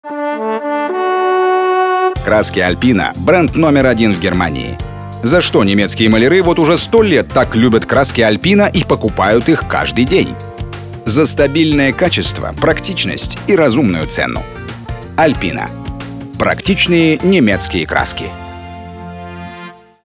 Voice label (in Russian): аудиореклама красок диктор; АЛЬПИНА; запись голоса монтаж сведение ролика